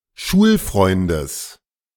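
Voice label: German "Schulfreundes"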